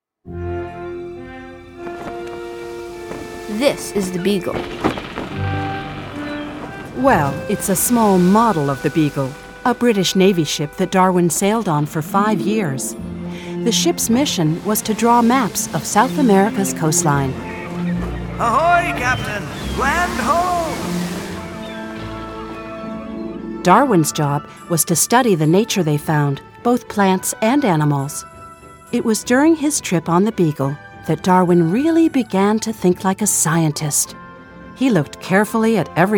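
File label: audio tours; ny botanical garden darwin s gardens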